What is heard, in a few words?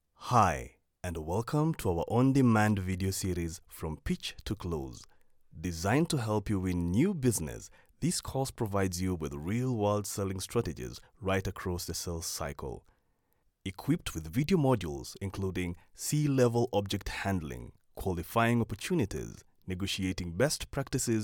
english african e-learning